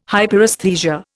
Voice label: "Hyperesthesia", Indian

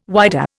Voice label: "whydah", Indian

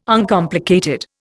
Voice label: "Uncomplicated", Indian

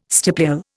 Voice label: "stipule", Indian

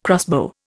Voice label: "crossbow", Indian

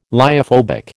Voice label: "lyophobic", American

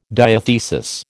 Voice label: "diathesis", American

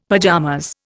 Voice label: "pajamas", Indian; Dictionary

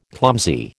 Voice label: "clumsy", American

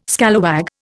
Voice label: "scalawag", Indian

